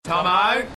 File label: Aussie Saying